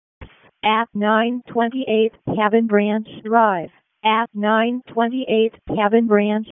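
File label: Fire Department Alert, p25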